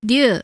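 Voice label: "Ryu", Japanese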